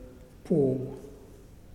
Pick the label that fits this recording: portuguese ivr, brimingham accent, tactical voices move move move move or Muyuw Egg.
Muyuw Egg